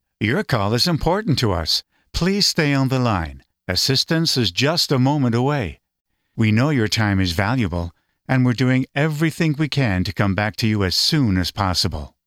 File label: Telephone IVR